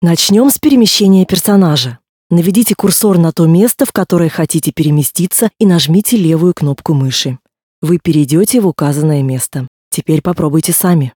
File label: tutorial voice ru; lineage2